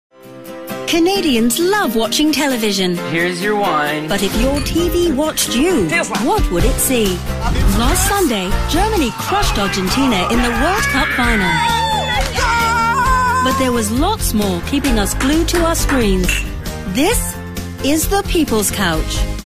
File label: British TV Narration, british accent